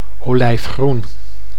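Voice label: "olijgroen", Dutch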